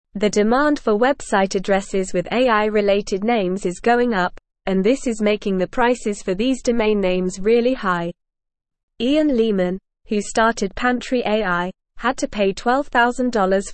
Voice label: Upper Intermediate NORMAL Reading, English; Rising Costs of AI Domain Names Reflect Industry; English Newsroom

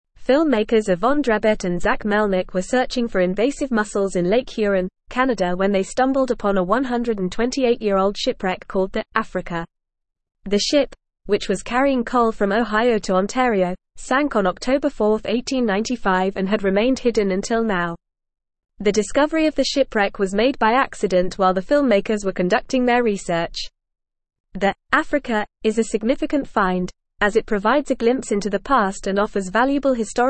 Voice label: English Newsroom Advanced FAST Reading; Shipwreck of Africa Found Filmmakers Uncover Maritime History

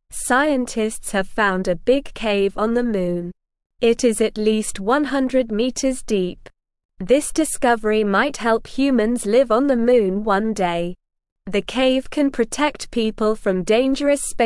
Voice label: English Lower Intermediate SLOW Reading; Big Cave Found on the Moon for Future Living; English Newsroom

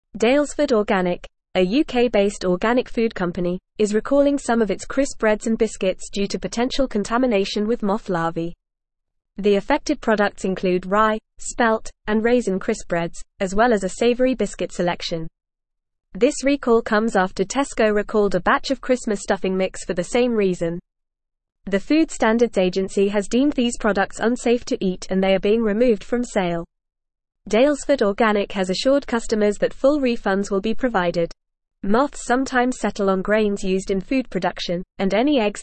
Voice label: Advanced FAST Reading, English; English Newsroom

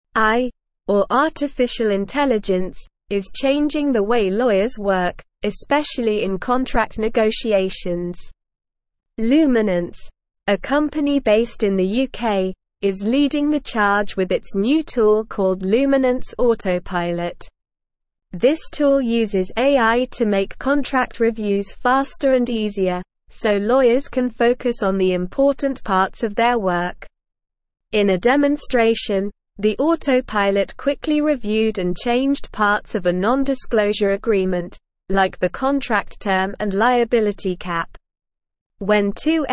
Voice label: English Newsroom Upper Intermediate SLOW Reading; Luminance to Launch Automated Contract Negotiation

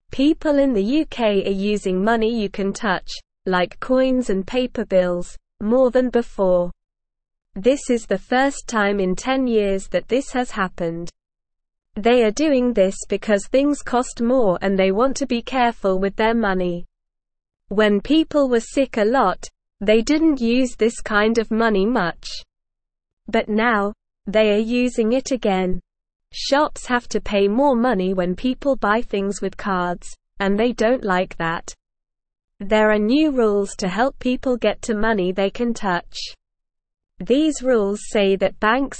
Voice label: English Beginner SLOW Reading; UK People Using More Physical Money New Rules Help Access

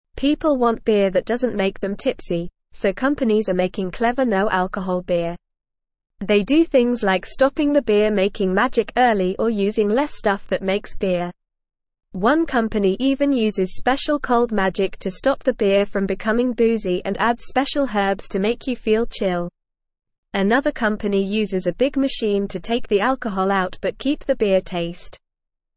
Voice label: English Newsroom Beginner NORMAL Reading; Companies Make Clever No Alcohol Beer for Everyone

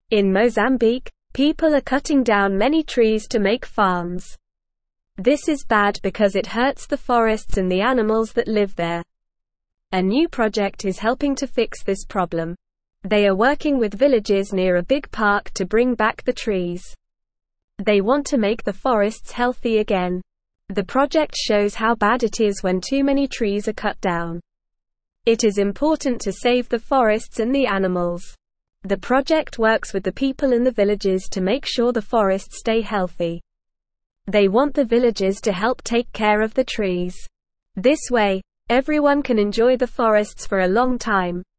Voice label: English NORMAL Reading; Helping Mozambiques Forests Bringing Back the Trees

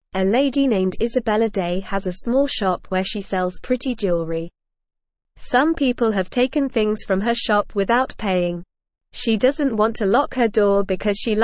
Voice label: English Beginner NORMAL Reading; English Newsroom; Shop Owner Catches Thief with Special Camera

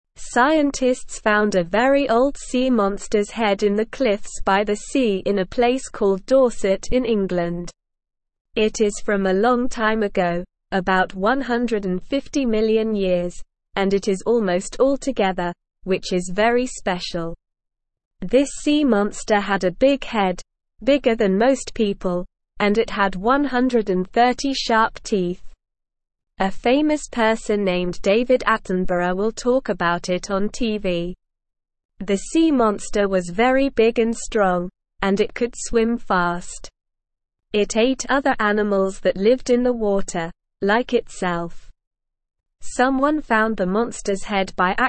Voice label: English Lower Intermediate SLOW Reading; A Big Sea Animal with Sharp Teeth; English Newsroom

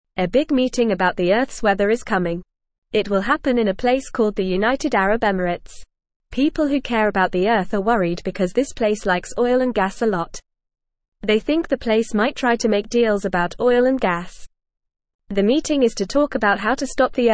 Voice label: English Newsroom Beginner FAST Reading; Big Meeting to Help Earths Weather in UAE

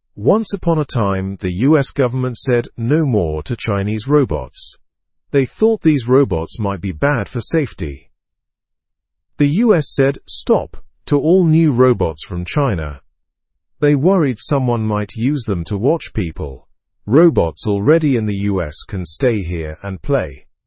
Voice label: english news beginner normal; us blocks new chinese robots

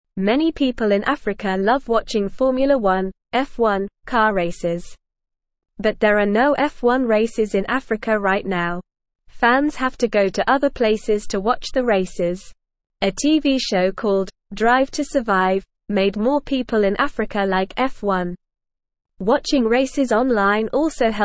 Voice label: English NORMAL Reading; Formula 1 Racing Africa Wants a Race

